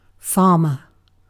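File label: "farmer", British English; pronunciations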